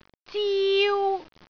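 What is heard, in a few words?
"ziu1", Cantonese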